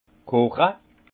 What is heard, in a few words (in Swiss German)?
"koche", alsace; vin; bouillir fermentation tumultueuse